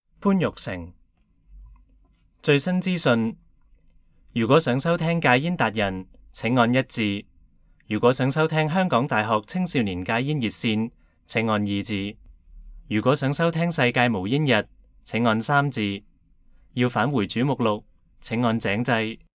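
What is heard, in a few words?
chinese cantonese male narration; voice demos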